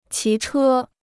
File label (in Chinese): "骑车", Chinese